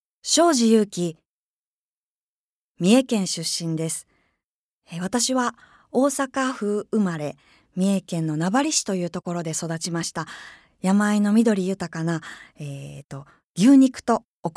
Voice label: name freetalk, female voice